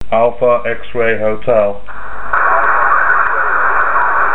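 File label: EME 5.6 GHz ssb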